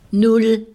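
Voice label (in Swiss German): "null", Alsatian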